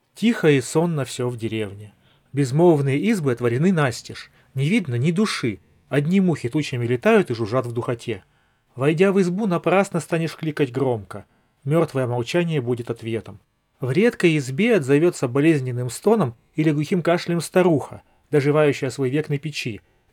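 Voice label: Recorder; huawei freebuds pro 5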